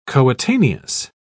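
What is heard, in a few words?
"coetaneous", English, British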